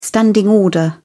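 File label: "standing order", British English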